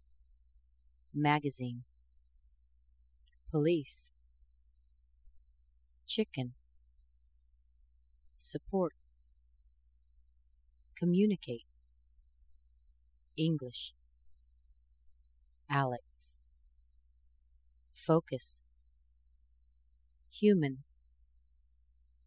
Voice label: schwa words, American accent

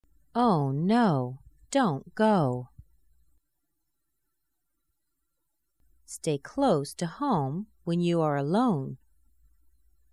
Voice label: ow sentences, American accent

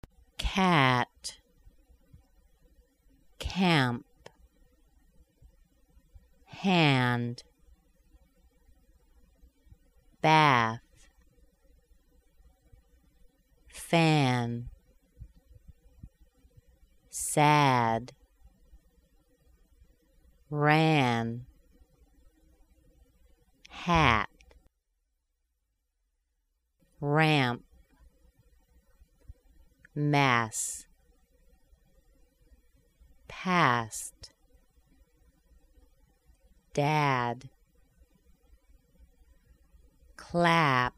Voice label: AE Words Slow, American accent

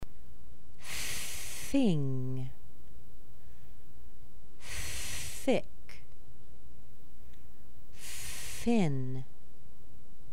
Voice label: TH words slow, American accent

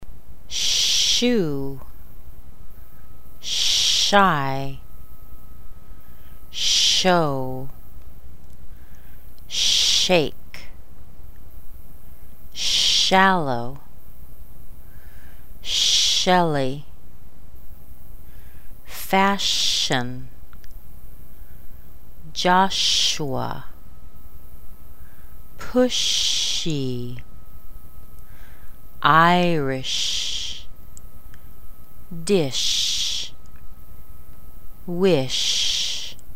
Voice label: SH ZH words slow, American accent

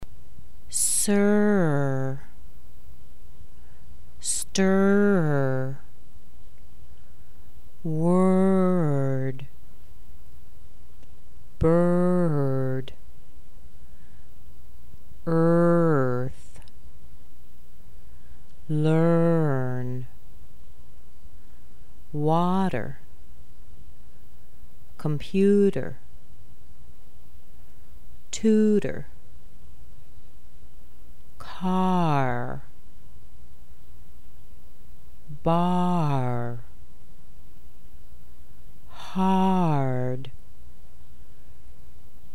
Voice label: R words slow, American accent

